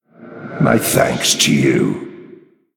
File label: Male Night Elf Thanks